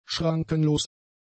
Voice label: "schrankenlos", German; adjectives